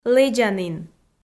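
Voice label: "лэдзанін" Komi, female; kpv audio dict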